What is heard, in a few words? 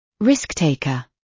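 "risk-taker", British; Life, leisure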